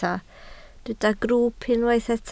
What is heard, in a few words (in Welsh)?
"grwwp" Welsh